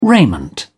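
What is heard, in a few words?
"raiment", British English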